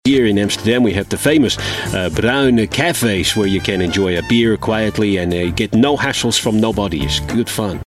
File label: dutch